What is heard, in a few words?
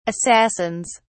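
"assassins", British English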